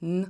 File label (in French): son n, russe